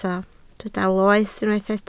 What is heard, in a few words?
"loes", Welsh